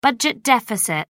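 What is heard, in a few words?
"budget deficit", English, British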